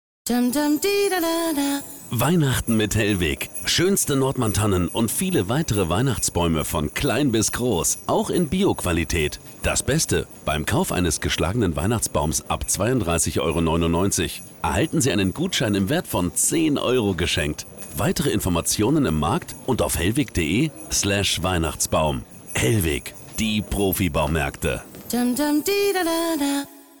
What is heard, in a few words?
German, Christmas Commercials; Germany; Christmas Commercials 2023